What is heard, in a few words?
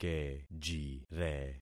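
"kejire", concatenated syllables; Affixing experiment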